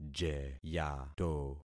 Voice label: "jeyato", concat syllables; Affixing experiment